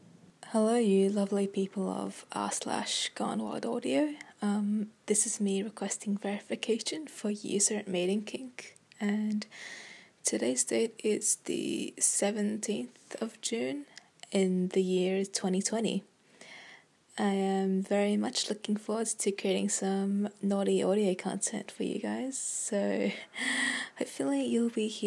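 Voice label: Female requesting verification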